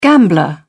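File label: "gambler", English, British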